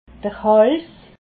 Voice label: "hals", alsace

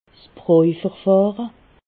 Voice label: "brojverfahre", alsace

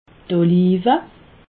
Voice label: "olive", alsace

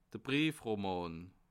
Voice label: "briefroman", Alsace

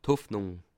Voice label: "hoffnung", alsace

